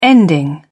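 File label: "ending", British English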